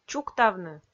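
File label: "чуктавны", Komi, female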